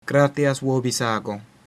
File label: thanks, latin